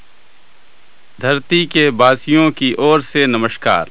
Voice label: hindi; Golden Record

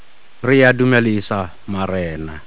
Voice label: sotho; Golden Record

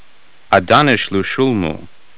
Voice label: akkadian; Golden Record

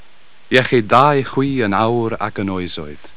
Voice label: welsh; Golden Record

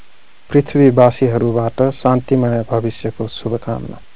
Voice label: nepali; Golden Record

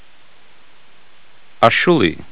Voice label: hittite; Golden Record

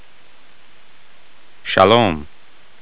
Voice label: hebrew; Golden Record

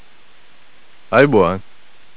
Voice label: sinhalese; Golden Record

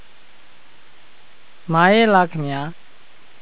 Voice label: burmese; Golden Record

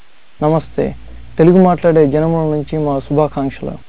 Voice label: telugu; Golden Record

